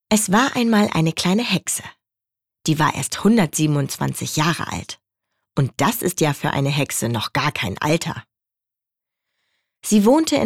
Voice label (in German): Lesung; KLEINE HEXE